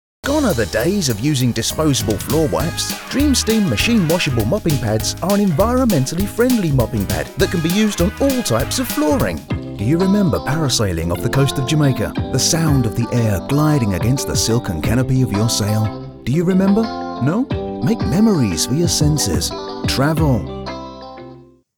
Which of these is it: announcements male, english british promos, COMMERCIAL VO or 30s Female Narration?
english british promos